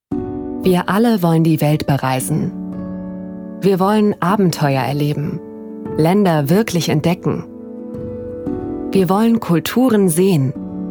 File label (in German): Imagefilm; Fairlebnis